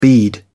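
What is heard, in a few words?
"bead", English, British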